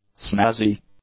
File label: "snazzy", english; adjectives